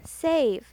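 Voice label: "save", English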